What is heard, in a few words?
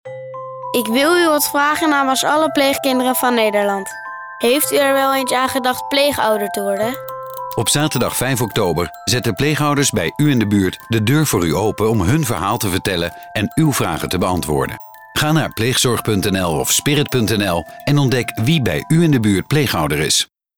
Spirit commercial